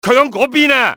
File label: there he is, cantonese male; XBOX; enemy